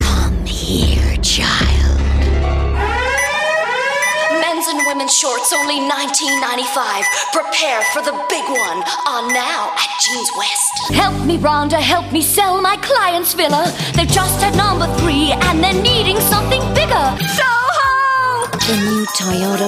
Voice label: character